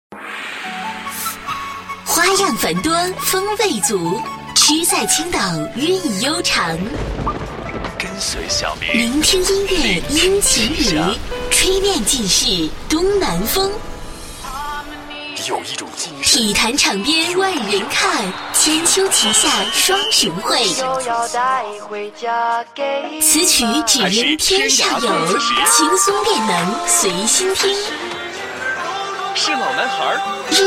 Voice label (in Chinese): 女, 片花; 七周年片花